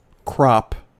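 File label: "crop", US English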